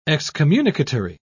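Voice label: "excommunicatory", British English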